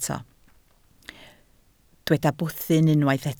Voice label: "bwthyn", Welsh